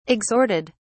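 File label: "exhorted", British English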